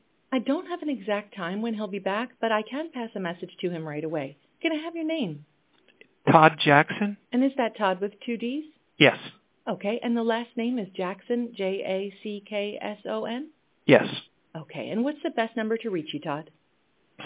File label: answering service recording cell phone